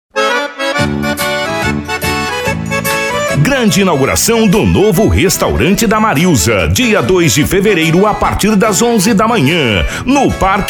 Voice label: Locutor Propaganda; Restaunte Mazilza

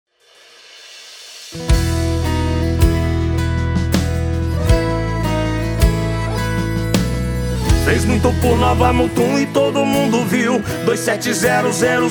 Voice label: Locutor Jingle Politico Versao inedita Estino Sertanejo

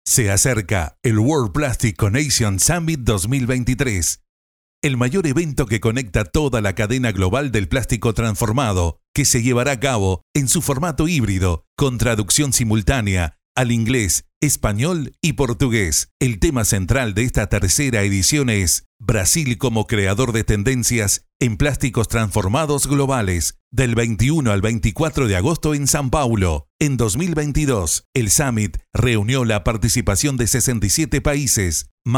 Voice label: Locutor Espanhol Nativo Uruguai Suave; The Word Plastic

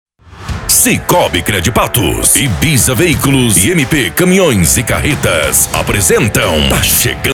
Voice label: Locutor, Apresento a voces; Parceiros

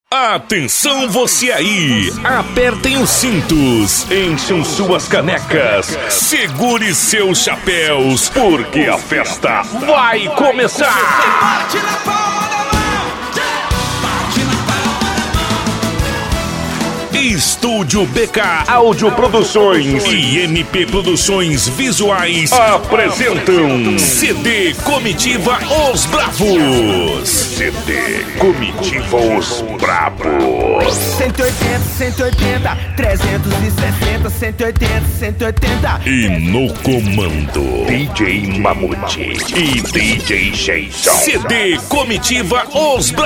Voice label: Locutor Rodeio; Comitiva Os Bravos

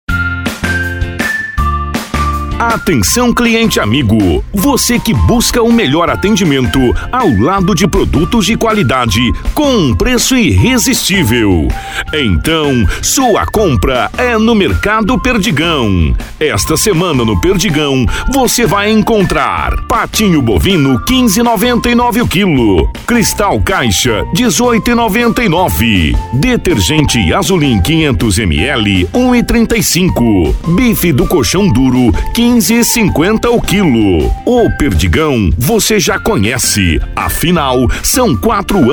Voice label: Locutor Propaganda; Mercardo Perdigao

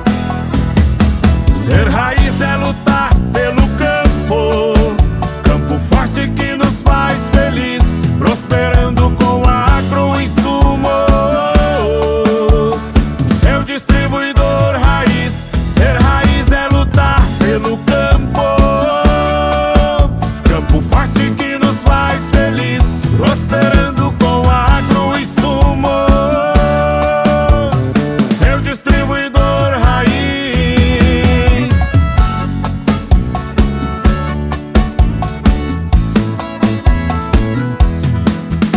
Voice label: Locutor Jingle Inedito Comercial Estilo Agro

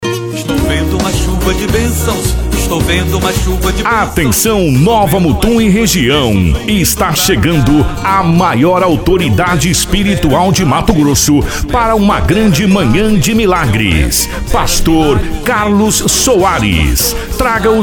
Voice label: Locutor, Igreja; Presenca com pastor para curas e milagres

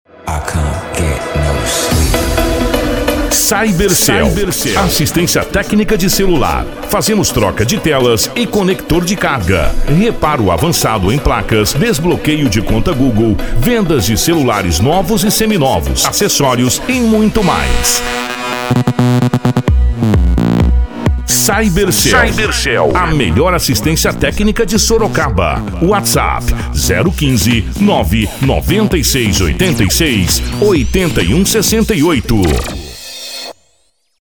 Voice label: Locutor Propaganda; Cyber Cell

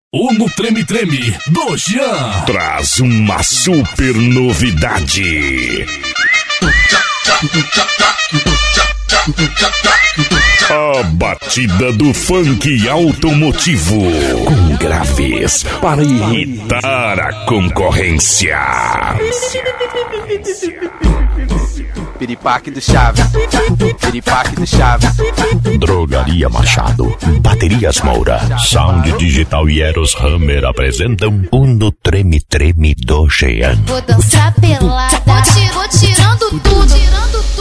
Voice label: Locutor Abertura; Uno Treme Treme do Gean

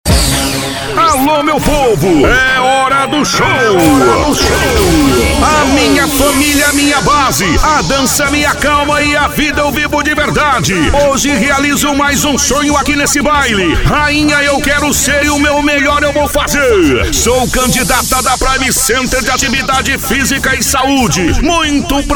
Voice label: Locutor Colegial; Prime Center

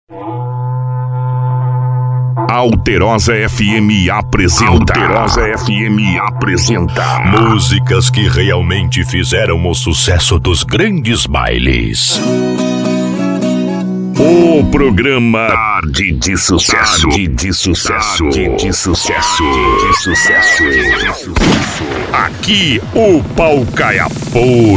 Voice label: Abertura para Radio; Midia

